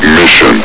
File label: "mission", Combine soldier; hl2